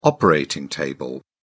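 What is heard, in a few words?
"operating table", British English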